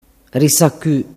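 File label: "rissacu", Sicilian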